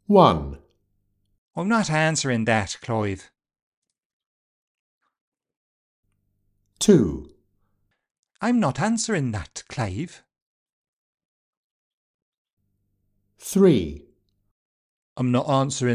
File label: Welsh